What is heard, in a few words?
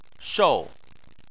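"shou" tone 4, Chinese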